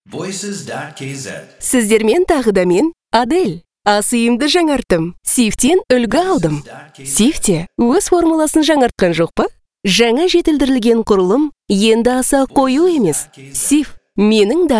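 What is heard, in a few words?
Kazakh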